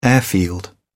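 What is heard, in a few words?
"airfield", British English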